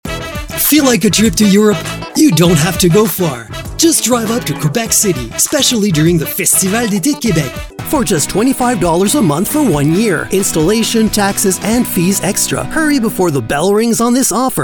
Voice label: radio & tv, english